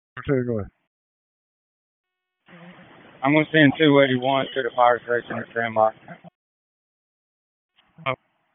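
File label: FIRE Operations, p25; FIRE OPS 2; wake p25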